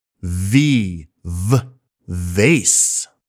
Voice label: V Vase, pronunciation; Island; phonics; アルファベットアイランド